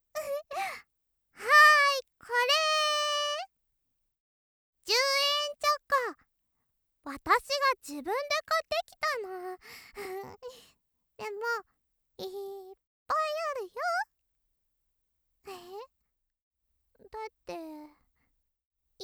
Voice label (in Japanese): ロリ バレンタインだよ